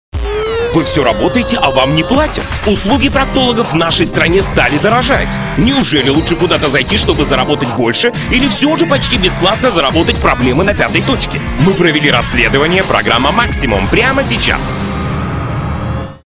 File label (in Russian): pyanyh